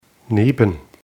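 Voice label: "neben", German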